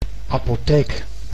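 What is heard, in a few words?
"apotheek", Dutch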